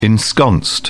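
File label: "ensconced", British English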